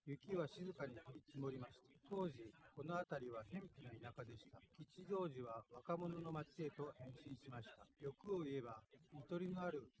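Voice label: male instantaneous source; SiSEC08; SiSEC underdetermined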